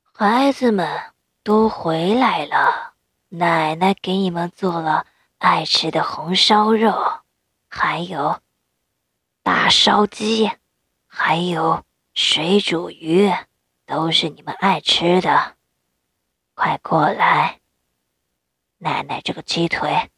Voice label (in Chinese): 老奶奶; 给你做了好吃的